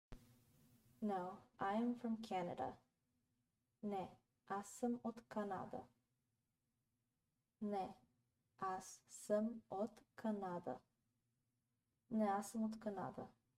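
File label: "No I am from Canada", Bulgarian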